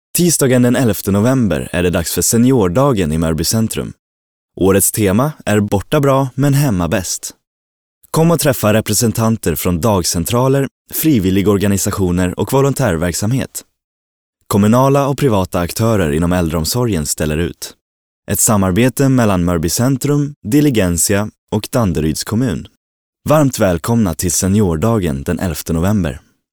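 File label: Swedish commercial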